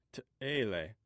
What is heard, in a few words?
"tethe", Omaha